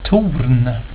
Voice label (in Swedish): "torn", swedish; plaatsen